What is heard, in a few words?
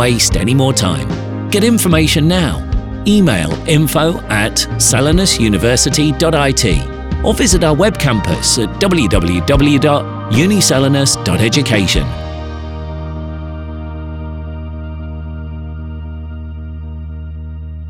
Bachelor Spot ENG; Uniselinus